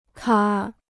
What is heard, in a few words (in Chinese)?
"卡", Chinese